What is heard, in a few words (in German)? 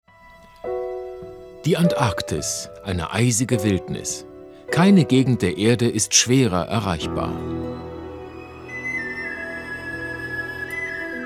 TV Doku Erzaehler Pinguine; WDR